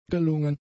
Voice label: "gelungen", German; adjectives